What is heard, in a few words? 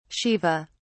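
"shiva", British English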